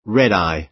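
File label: "red-eye", American English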